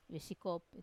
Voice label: "viesikop", he kicks, Wamesa